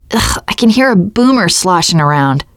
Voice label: heard boomer, teen girl; player; survivor; voice